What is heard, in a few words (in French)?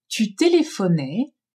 "tu telephonais", french; telephoner